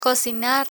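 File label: "cocinar", Spanish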